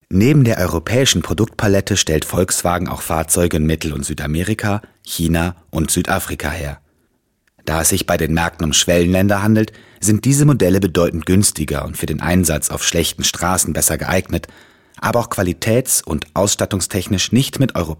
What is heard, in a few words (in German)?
industriefilm, deutsch maenner; sprecher